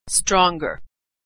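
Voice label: "stronger", American English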